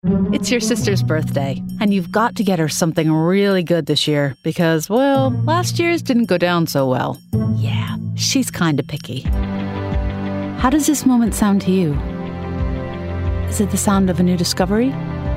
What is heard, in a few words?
Commercial